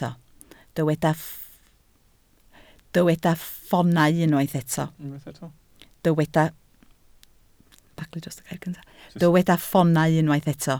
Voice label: "ffoonau", Welsh